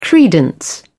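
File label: "credence", British English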